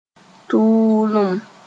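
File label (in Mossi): "tʋʋlem", Mooré; heat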